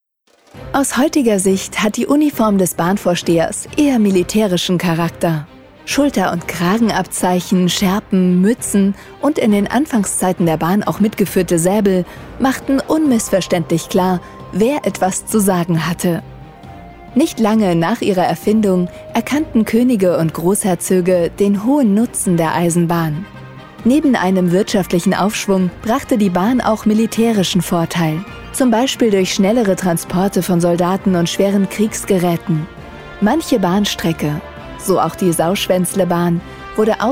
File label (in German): audioguide; Maqnify